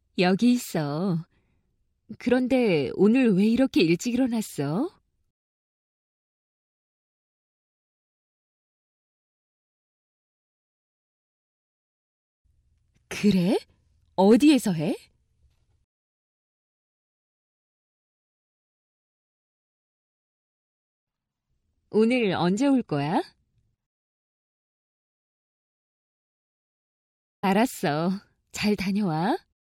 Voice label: korean